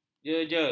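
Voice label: "jejer", Malay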